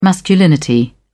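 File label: "masculinity", British English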